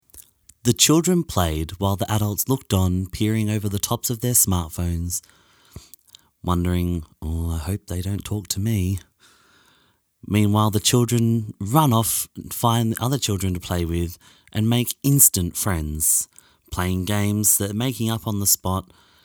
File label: narration